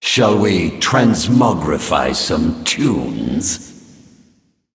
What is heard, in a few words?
Male Ethereal Emote